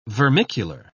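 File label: "vermicular", British English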